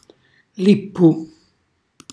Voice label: "lippu", Calabrese; borracina; cucina calabrese; Glossario

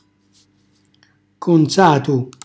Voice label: "consatu", Calabrese; aggiustato; cucinacalabrese; glossario

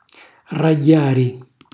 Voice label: "rragghjari", Calabrese; ragliare; cucina calabrese

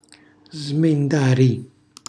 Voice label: "smendari", Calabrese; cucinacalabrese; glossario

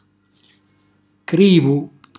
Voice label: "crivu", Calabrese; crivello

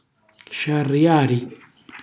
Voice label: "sciarriari", Calabrese; cucina calabrese

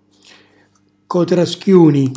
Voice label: "cotraschjuni", Calabrese; ragazzotto

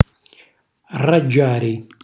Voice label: "rraggiari", Calabrese; cucina calabrese

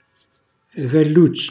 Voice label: "galluzzu", Calabrese; galletto; cucina calabrese; Glossario

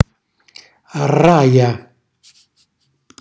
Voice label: "raja", Calabrese; Rràja; razza; cucinacalabrese; glossario

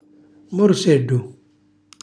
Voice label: "morsellu", Calabrese; piccolo morso; cucina calabrese; Glossario

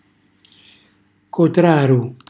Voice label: "cotraru", Calabrese; bambino, ragazzo

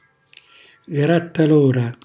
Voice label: "grattalora", Calabrese; grattugia; cucina calabrese; Glossario

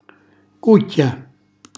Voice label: "cucchja", Calabrese; coppia

